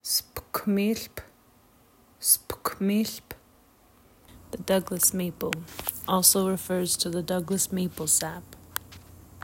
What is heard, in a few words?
spukmilp, Nsyilxen Plant Names; Douglas maple